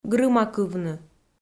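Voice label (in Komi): "грымакывны", Komi, female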